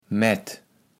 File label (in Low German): "Maett", kaevels platt